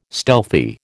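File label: "stealthy", American English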